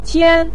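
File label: "qian5", Chinese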